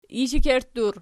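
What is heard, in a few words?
"ishekerttur" nominative, Archi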